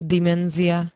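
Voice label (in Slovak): "dimenzia", Slovak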